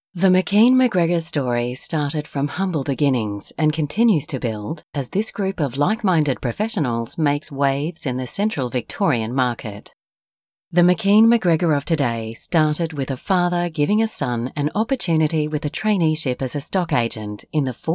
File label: Corporate Video; McKean McGregor